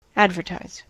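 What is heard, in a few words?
"advertize", US English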